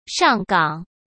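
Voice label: "上岗", Mandarin Chinese; chinese-flashcards; hsk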